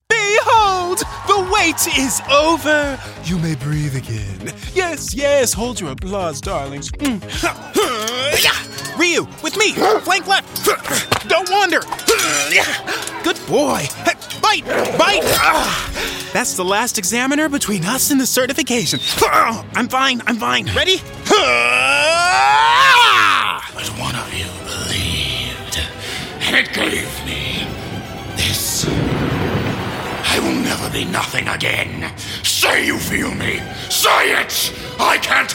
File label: Anime